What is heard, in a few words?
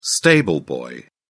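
"stable boy", British English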